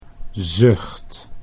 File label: "zucht", Dutch